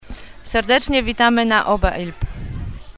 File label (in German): polnisch